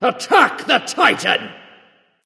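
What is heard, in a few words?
vox attack, male; smite; osiris; voicepack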